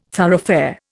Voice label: "thoroughfare", Indian